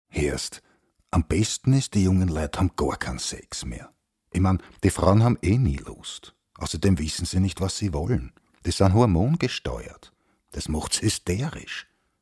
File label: radiospot; THE RAIN WORKERS